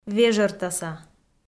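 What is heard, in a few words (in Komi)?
"вежӧртаса", Komi, female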